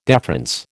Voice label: "deference", American